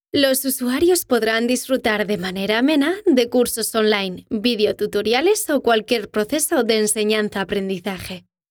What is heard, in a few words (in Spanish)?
E LEARNING CAS